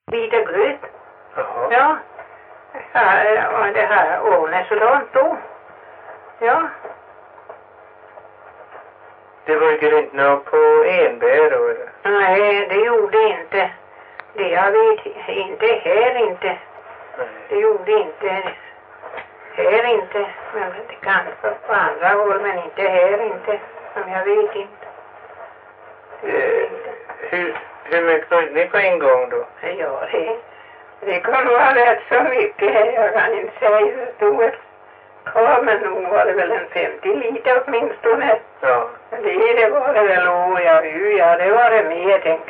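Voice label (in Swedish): Stenåsa Öland, Svenska sagor dialekter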